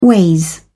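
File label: "ways", British English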